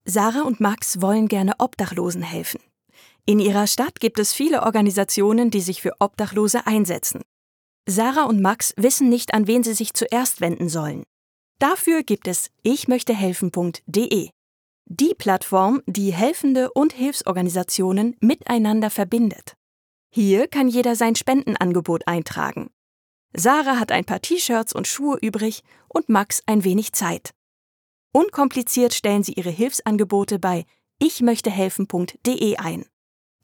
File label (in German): Erklaervideo